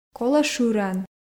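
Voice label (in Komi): колӧ шыран, Komi, female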